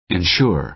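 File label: "ensure", American English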